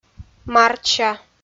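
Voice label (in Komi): "мартся", Komi-Zyrian, female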